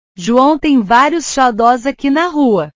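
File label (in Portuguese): "xodó", Portuguese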